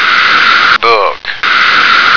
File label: "book", American